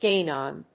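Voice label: "gain on", English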